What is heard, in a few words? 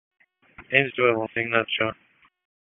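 FIRE Operations, p25; FIRE OPS 2; wake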